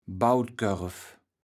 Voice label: "Bautkörv", kaevels platt

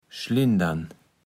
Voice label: "schlindern", Platt

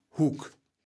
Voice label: "Huck", kaevels platt

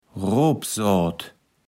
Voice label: "Roapsoat", Platt

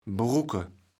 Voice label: "brucke", kaevels platt

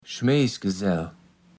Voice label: "Schmejsgesell", platt

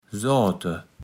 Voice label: "soate", Platt

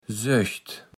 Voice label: "Söcht", platt

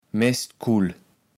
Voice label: "Mestkull", kaevels platt

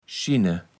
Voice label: "schinne", Platt

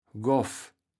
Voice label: "goaf", kaevels platt

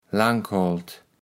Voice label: "Lankholt", kaevels platt

